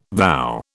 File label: "vow", British